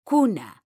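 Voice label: "CUNA", Spanish; mekanta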